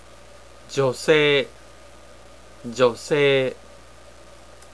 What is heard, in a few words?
female; japanese